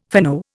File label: "fino", Indian